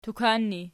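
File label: "tukan" ergative, Archi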